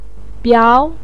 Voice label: "biao2", Chinese pinyin voice